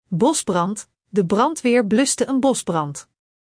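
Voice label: "bosbrand", Dutch; Spelling Gr4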